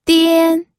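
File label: "dian", pinyin, first tone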